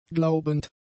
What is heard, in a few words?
"glaubend", German; adjectives